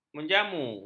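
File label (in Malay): "menjamu", Malay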